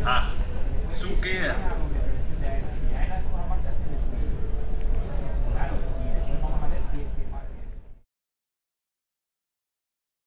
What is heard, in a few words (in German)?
Ansage Air Berlin nach Santiago de Compostela